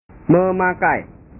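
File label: "memakai", Indonesian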